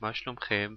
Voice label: how are you plural male in hebrew